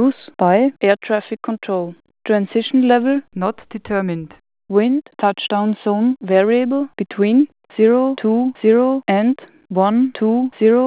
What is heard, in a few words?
ATIS Innsbruck